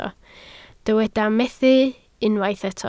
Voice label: "methu", Welsh